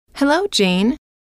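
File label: hello, female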